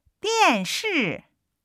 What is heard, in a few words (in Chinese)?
"电视", Chinese